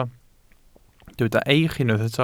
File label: "eich", Welsh